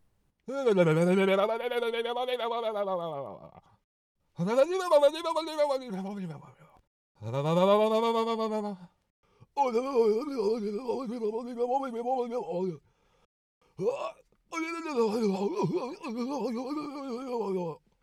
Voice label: Riding Up Stairs Vibrating Cartoonish and Dude Bro Voice; Character Voice Demos